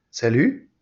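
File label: "salut", French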